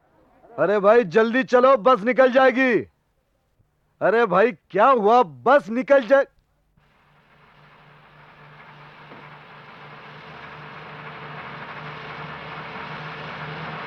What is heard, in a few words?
dialogues, soundtrack version; ghulami